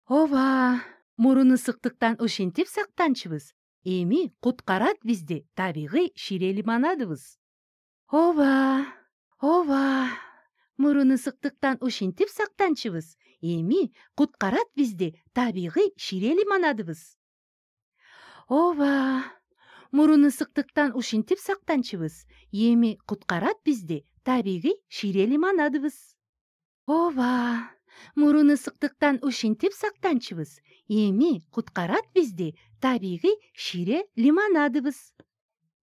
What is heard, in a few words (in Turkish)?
Kirgizca Kadin